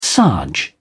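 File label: "sarge", British English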